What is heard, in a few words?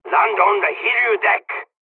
landing, dialogs korean; midway jpn; War Thunder